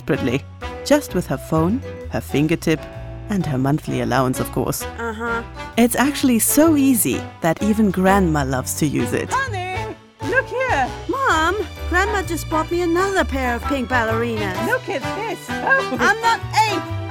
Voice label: Englisch Bank Commercial; 3 Generations